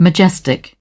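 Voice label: "majestic", British English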